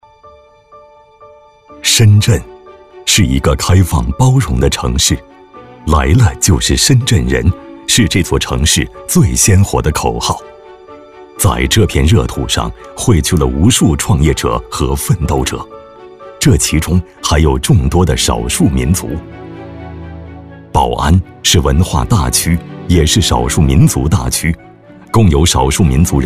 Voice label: 男 宣传片; 宝安区第四届拉面

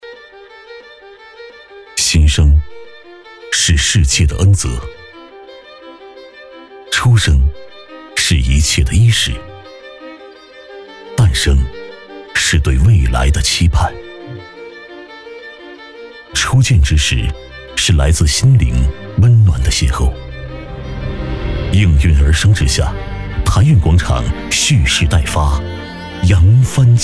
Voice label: 男 宣传; 台运广场

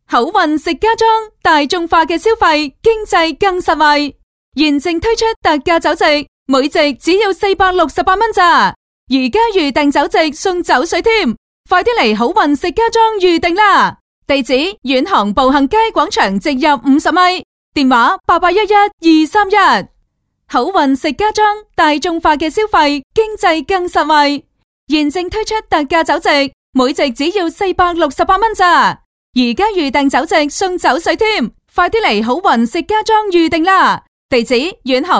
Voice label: 粤 女 促销; 好运食家庄

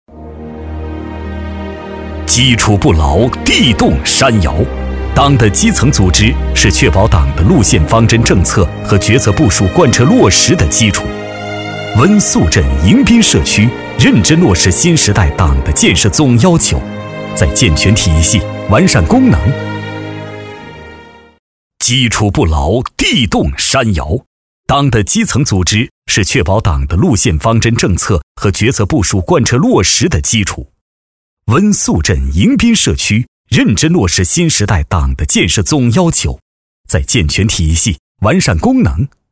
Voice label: 男【专题】大气稳重

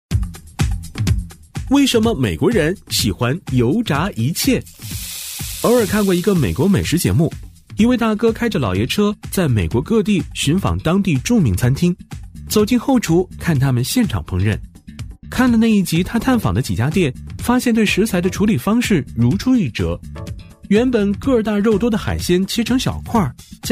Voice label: 男, MG飞碟; 美国油炸一切

